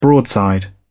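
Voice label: "broadside", British English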